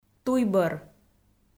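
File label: "туйбӧр", Komi, female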